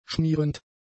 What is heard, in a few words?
"schmierend", German; adjectives